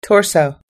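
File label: "toys", US English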